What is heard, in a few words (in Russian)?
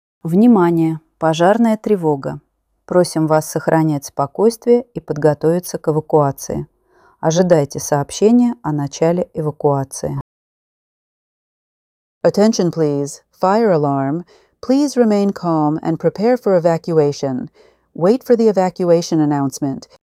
opoveshchenie poetapnaya evakuaciya ru en